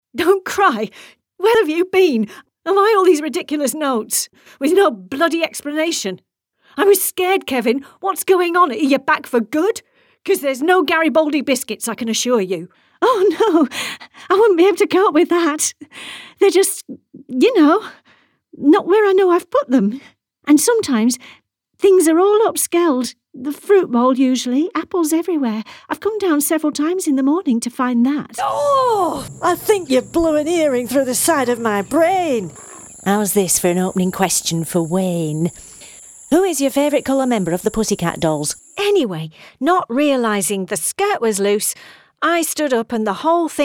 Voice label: Audiodrama Northern